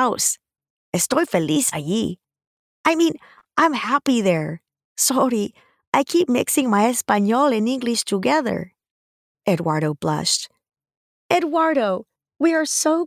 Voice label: Spanish Accent 3rd Person

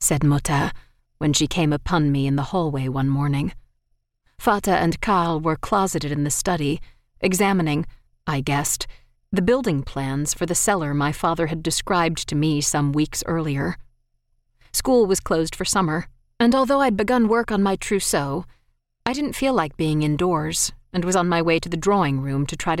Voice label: Historical Fiction with German

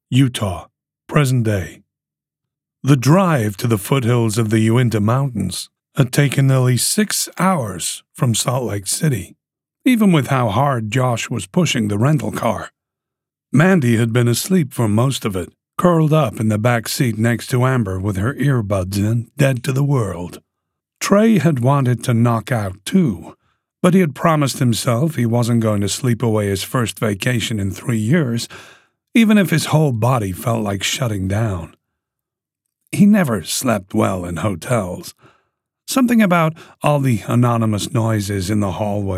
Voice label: General American

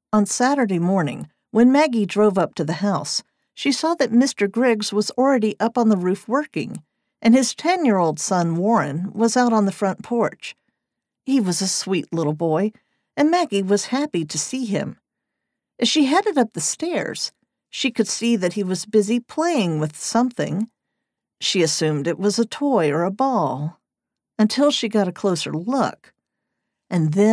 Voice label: 3rd POV Humor Woman Child Dialogue

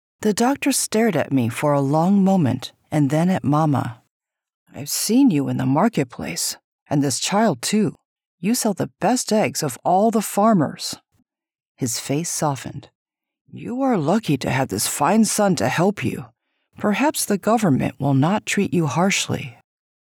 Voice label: 1st Person Spa Girl

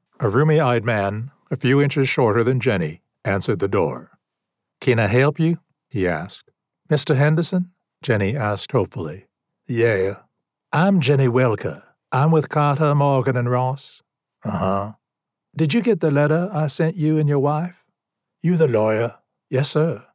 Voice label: Fiction Mystery Southern Accents F F M

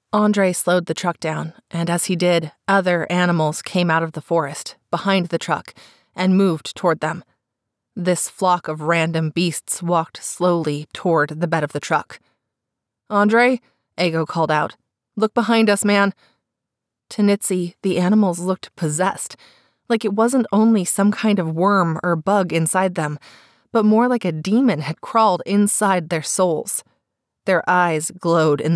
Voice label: Horror Suspense Southern Accent Multi Character

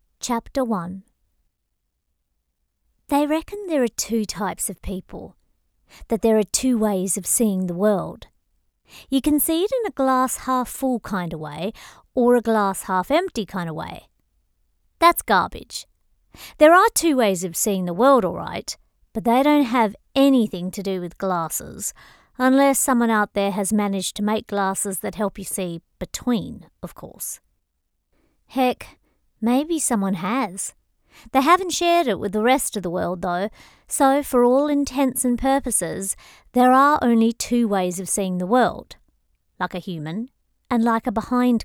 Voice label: Urban Fantasy Fiction Soft Australian Accent; Between Homes

